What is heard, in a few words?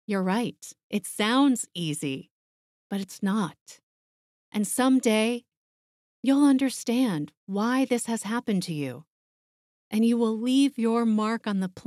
Dramatic Older Female Young Boy Memoir; A Book for Someone